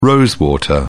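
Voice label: "rose water", British English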